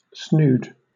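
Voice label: "snood", British English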